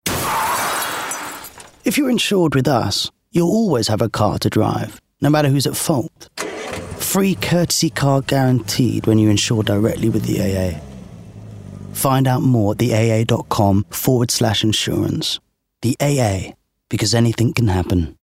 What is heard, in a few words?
COMMERCIAL; The AA